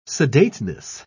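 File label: "sedateness", British English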